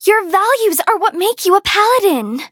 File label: touch, en; Cookie Run